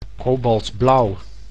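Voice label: "kobaltblauw", Dutch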